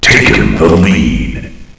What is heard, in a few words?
taken lead, announcer; hl1mp; XDM